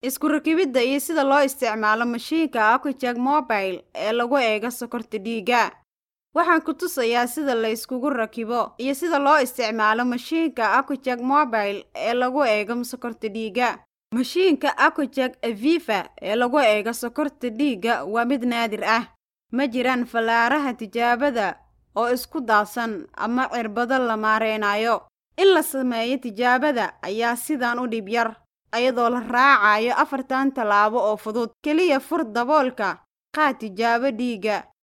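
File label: Somali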